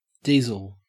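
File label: "diesel", Australian English; pronunciations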